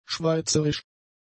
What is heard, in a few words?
"schweizerisch", German; adjectives